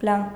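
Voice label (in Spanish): "plan", Spanish, Andalusia, Sevilla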